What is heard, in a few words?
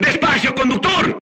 voice message drive slowly, crew tanks spanish